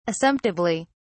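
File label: "assumptively", British English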